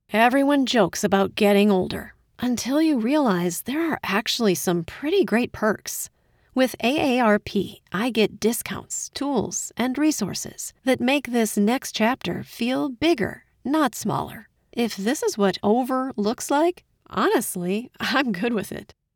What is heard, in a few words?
Ad; AARP